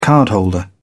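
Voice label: "cardholder", British English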